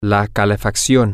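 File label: the heat, Spanish, male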